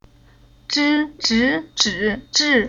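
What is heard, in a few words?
"Zhi", chinese syllable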